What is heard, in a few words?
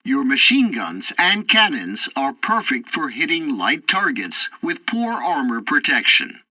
tutorial fighter ground fight, english